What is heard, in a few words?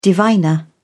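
"diviner", British English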